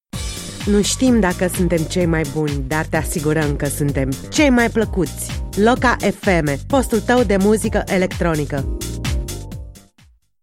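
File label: Romanian, female, commercial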